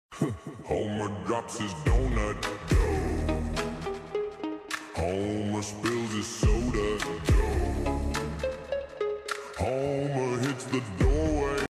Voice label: doh slowed reverb; homer